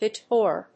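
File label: "bitor", English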